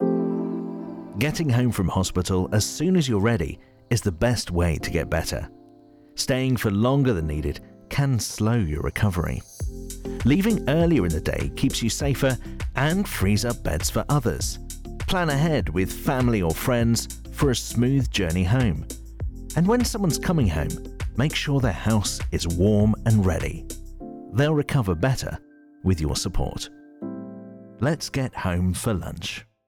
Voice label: Infomercial; Home for Lunch; campaign aims to speed up hospital discharges and improve wellbeing